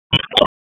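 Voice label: Raleigh Police North District Tactical, p25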